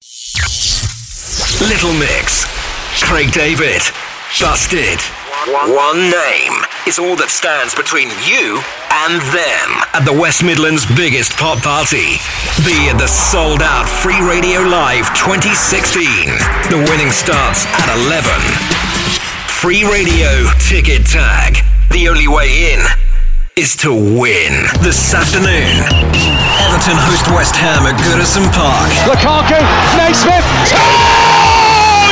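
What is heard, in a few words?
English UK Promo; GoLocalise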